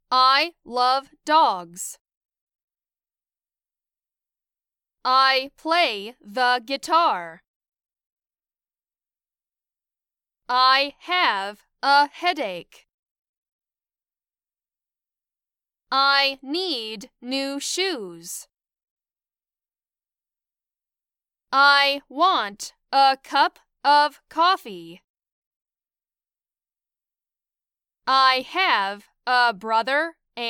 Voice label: Sentences Semi Natural